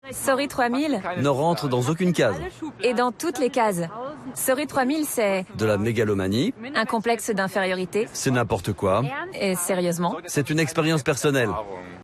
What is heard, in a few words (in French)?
voiceover VF; ARTE; Magculturel